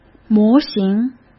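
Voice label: "模型", Mandarin Chinese; hsk; chinese-flashcards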